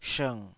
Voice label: "shng3", taiwanese Syllables